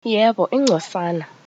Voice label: yes a little zulu; Yebo, ingcosana; Do you speak Zulu?